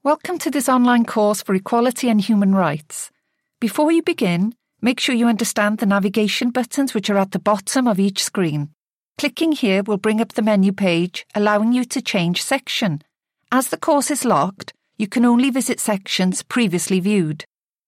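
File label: Welsh English female training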